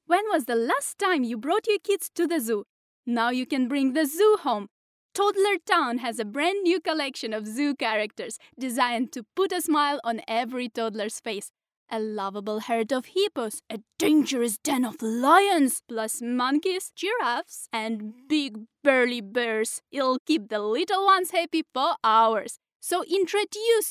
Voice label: female russian voice over english

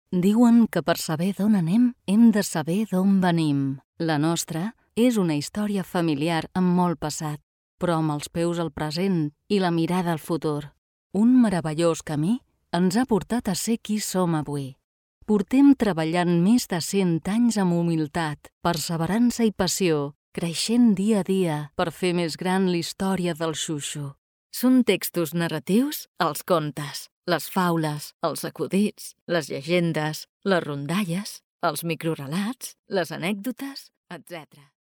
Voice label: female catalan voice over narrative

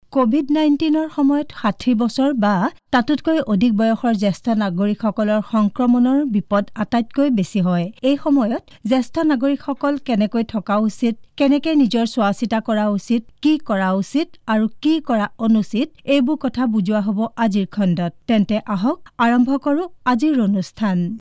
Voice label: female assamese voice over narrative

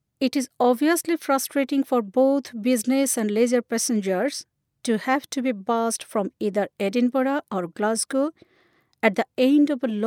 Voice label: female indian voice over narrative

